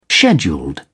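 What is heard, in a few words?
"scheduled", British English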